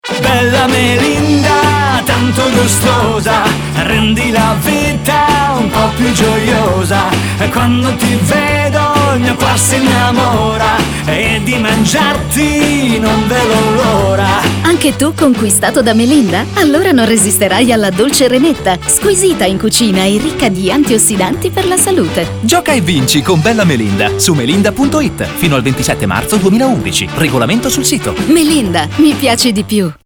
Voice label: radio, italian